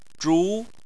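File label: "zhu" Chinese, second tone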